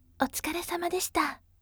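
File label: voice otsukaresamadeshita gentle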